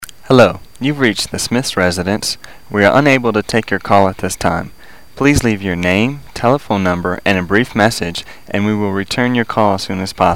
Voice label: telephone message